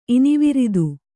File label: "ಇನಿವಿರಿದು", kannada